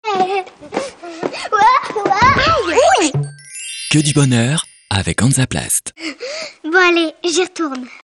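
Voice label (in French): naturel, voix off parrainage, homme; HANSAPLAST naturel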